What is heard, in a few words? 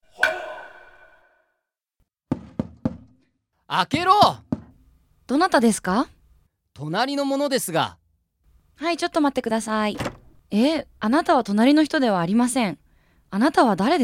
dialog; JapanesePod101; Beginner Season 1